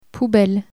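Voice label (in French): "poubelle", French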